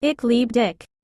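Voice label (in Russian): на немецком признание в любви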